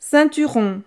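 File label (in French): "ceinturon", French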